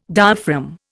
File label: "diaphragm", Indian